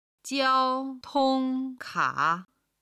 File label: "jiao1 tong1 ka3", Chinese